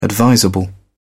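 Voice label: "advisable", British English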